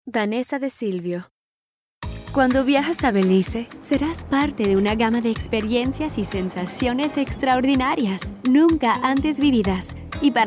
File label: Narr Span